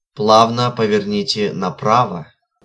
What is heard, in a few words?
Bear Right, Russian male